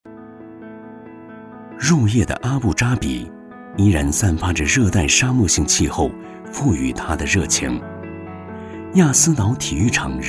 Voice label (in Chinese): 专题, 企业, 体育, 讲述